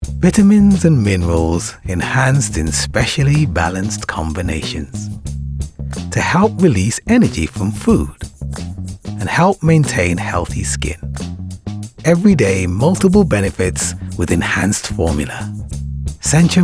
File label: Commercial; Centum Vitamins